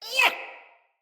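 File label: Attack Corrupted Sprouts, en; Battle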